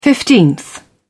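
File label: "fifteenth", English, British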